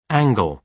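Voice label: "angle", British English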